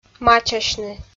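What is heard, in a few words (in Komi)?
"мачасьны", Komi-Zyrian, female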